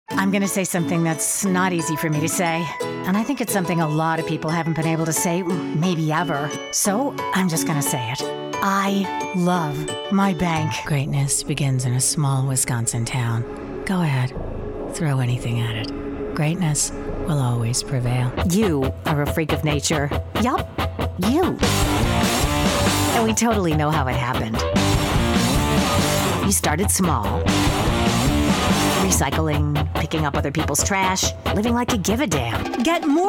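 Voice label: Commercial Female, Confident, Warm, Friendly; Commercial Voiceover, TV and Radio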